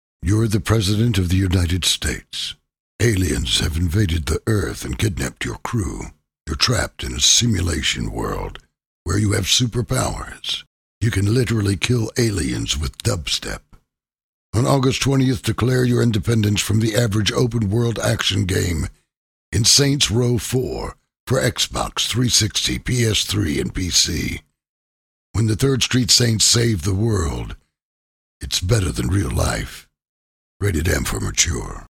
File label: US, spot